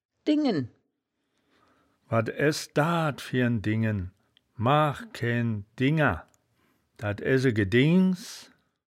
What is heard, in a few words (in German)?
"dingen", wittlicher